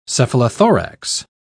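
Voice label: "cephalothorax", British English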